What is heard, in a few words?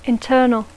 "internal", English